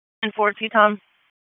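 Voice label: Dispatch 1, P25; WSO DISP 1; Wake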